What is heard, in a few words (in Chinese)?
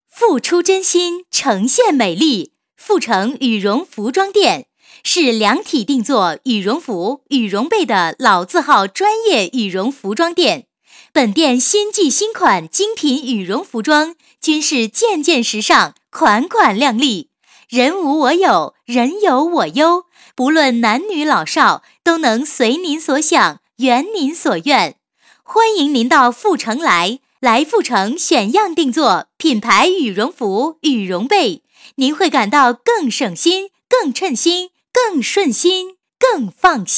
女 促销, 国语女声配音; 付程羽绒服